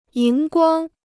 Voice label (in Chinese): "荧光", Chinese